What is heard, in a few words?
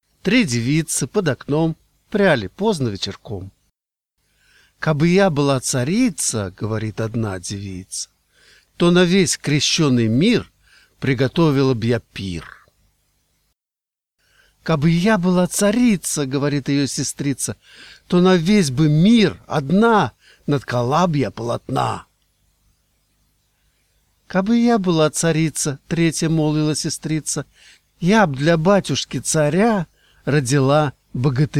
Russian samples